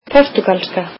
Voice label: "Portuguese", Icelandic